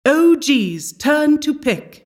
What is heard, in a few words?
announcer team pick